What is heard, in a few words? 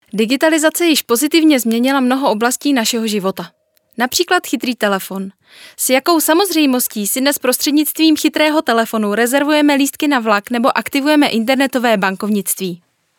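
Czech, female, narration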